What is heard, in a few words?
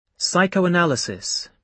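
"psychoanalysis" English, British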